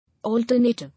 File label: "Alternative", English